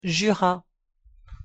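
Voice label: pronunciation french jura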